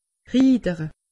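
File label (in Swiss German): "riitere", Alsatian; medecine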